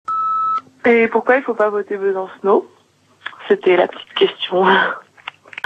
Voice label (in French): LCR repondeur